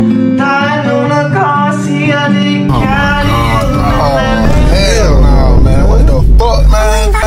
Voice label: voicecrack